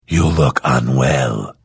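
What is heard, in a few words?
Hello, Vo; Morrowind GOTY GOG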